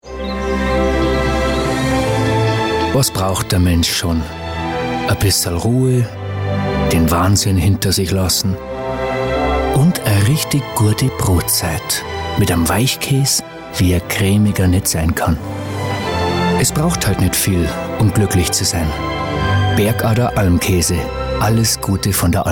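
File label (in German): bayerisch, Dialekt, Sprecher, Männer; BergaderAlmkaese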